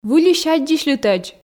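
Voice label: "выльысь аддзысьлытӧдз", Komi, female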